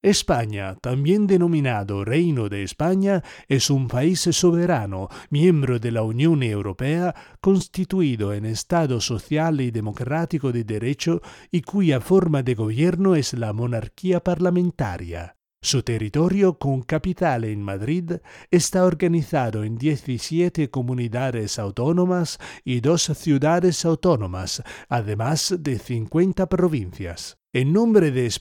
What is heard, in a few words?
Spanish With Italian Accent